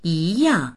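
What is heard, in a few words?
"yi2yang4", Mandarin Chinese; 一样; 同じである、似ている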